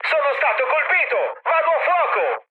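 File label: Italian on fire mood med, speech; War Thunder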